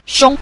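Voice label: "xiong", Mandarin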